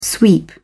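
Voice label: "sweep", British English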